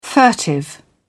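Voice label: "furtive", British English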